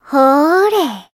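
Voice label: Voice finish, Japanese; leviachan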